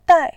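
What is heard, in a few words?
"dai", Mandarin Chinese, fourth tone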